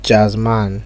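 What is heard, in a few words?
"jazz man", Nigerian Pidgin, male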